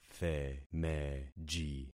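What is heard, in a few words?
"themeji", concatenated syllables; Affixing experiment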